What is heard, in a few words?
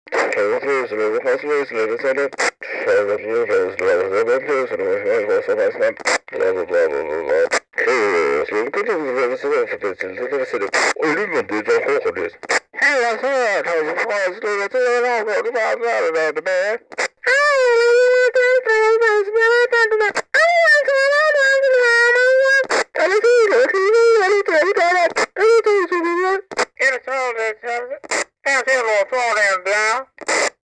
Walkie Talkie Radio Chatter Noise